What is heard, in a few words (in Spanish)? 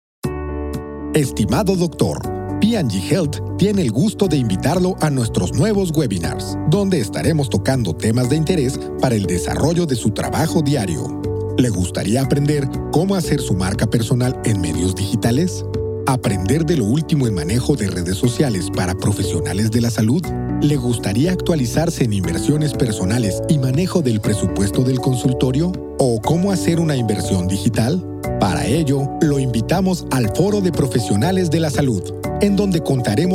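e-learning, Mexico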